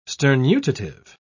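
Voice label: "sternutative", British English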